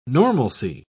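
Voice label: "normalcy", British English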